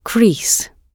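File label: "crease", British English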